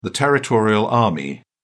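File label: "territorial army", English, British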